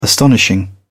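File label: "astonishing", British English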